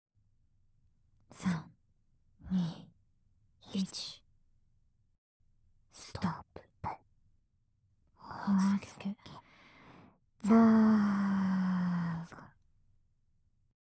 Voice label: 【3人】カウントダウン, 淫語連呼・命令連呼バイノーラル

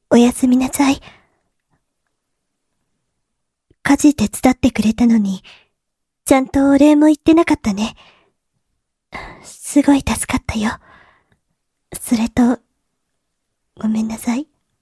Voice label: 土曜 妻, おやすみなさい; 新妻

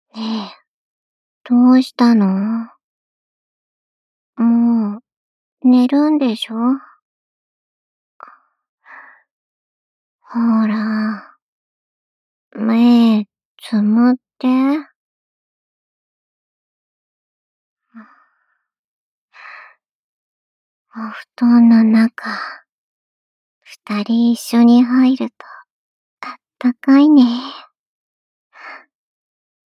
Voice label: 「おやすみボイス」, ASMR; SEあり

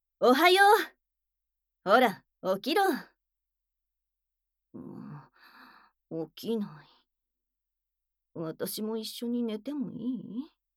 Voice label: アラームボイス; むらた屋

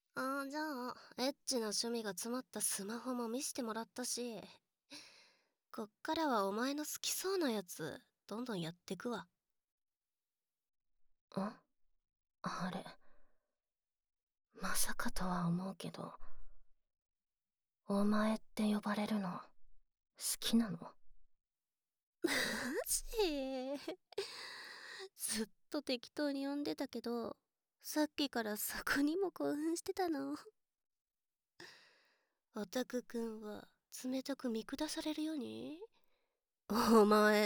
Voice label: シコシコボイスと吐息で握り方が変わっちゃうオナニー, ASMR

